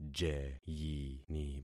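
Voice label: "jeyini", concatenated syllables; Affixing experiment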